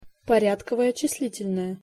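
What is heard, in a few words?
"porjadkovoe cislitel noe", Russian pronunciation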